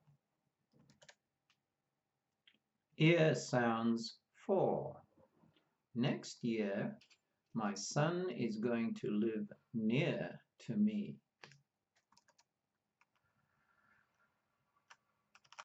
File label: Ear sounds, pronunciation of australian english